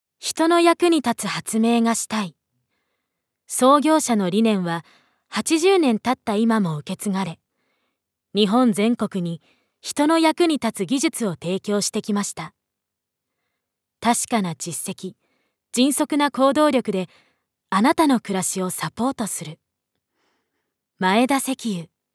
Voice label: narration